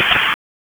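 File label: Raleigh Police Special Operations Tactical, p25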